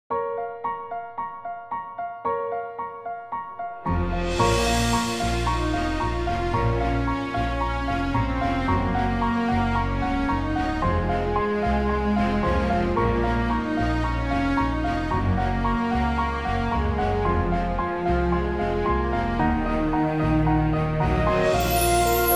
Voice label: press conference